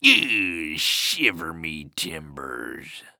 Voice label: Human Pirate Say Shiver Me Timbers